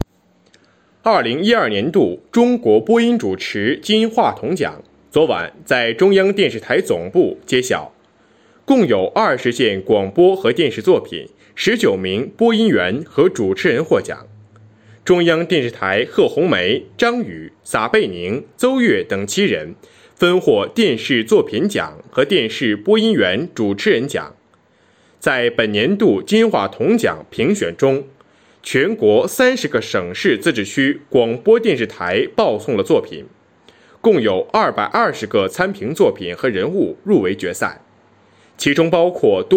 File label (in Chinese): 新闻类